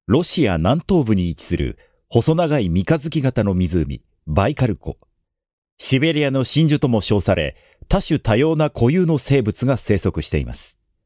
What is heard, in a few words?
narration